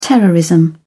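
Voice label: "terrorism", English, British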